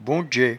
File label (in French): "bondjet", patois jura